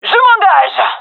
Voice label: attacking, gendarmerie fem